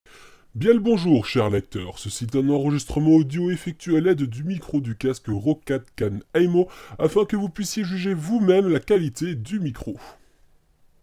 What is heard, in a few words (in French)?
homme, test roccat khan aimo; vonguru